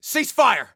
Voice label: Team Cease Fire, Soldier Male